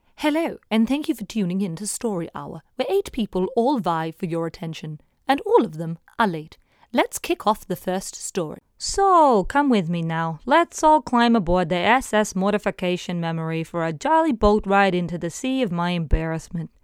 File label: Character Voice Demo